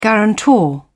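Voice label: "guarantor", British English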